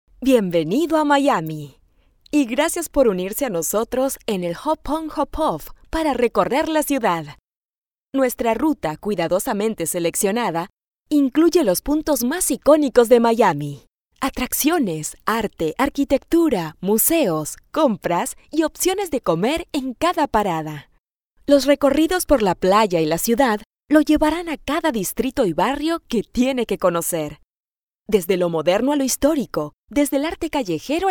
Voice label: Audio Tour Audioguide